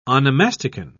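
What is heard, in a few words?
"onomasticon", British English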